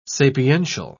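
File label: "sapiential", British English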